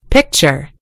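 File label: "picture", American English; gcompris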